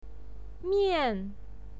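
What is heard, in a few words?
"mian" fourth tone, pinyin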